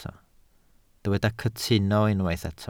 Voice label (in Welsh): "cytuno", Welsh